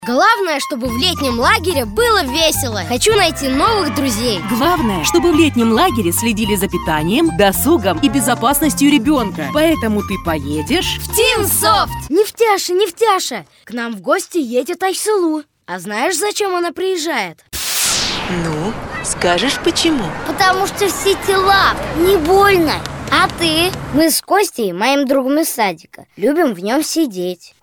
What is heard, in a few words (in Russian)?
детский голос; child